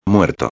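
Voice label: "muerto", Spanish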